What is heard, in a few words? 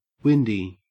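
"windy", Australian English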